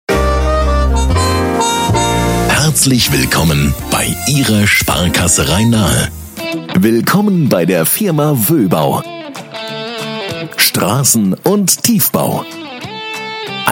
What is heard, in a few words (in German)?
Sprecher Telefon Warteschleife Anrufbeantworter IVR VoIP bekannte deutsche Stimme